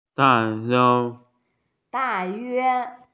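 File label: "da4yue1", Chinese, female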